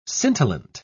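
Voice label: "scintillant", British English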